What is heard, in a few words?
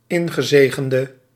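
"ingezegende", Dutch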